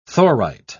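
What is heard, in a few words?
"thorite", British English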